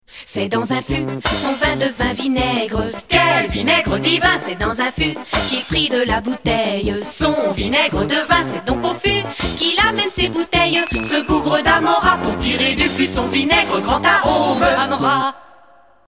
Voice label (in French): radio pub spot